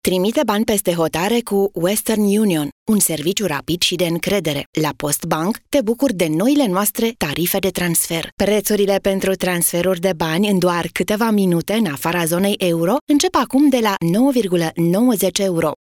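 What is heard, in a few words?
Romanian, female, commercial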